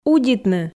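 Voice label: "удитны", Komi, female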